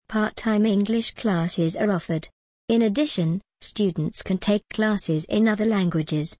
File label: en gb part time english classes are offered in addition students can take classes in o